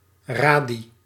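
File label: "radii", Dutch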